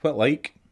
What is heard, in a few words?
how are you, scots